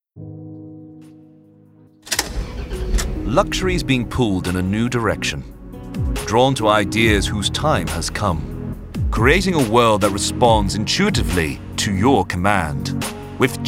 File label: neutral irish accent commercial; bmw